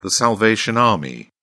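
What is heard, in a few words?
"salvation army", British English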